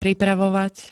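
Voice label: "pripravovať", Slovak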